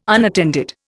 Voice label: "unattended", Indian